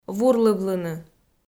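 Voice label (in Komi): "вурлывлыны", Komi-Zyrian, female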